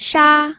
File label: "sha1", pinyin